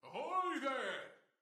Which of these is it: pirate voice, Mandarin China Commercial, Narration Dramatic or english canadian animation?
pirate voice